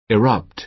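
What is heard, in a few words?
"erupt", American English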